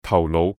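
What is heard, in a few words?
"頭腦", Cantonese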